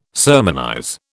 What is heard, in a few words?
"sermonize", British